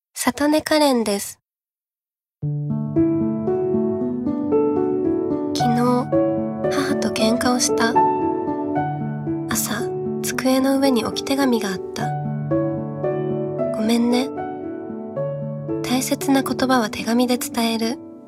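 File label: commercial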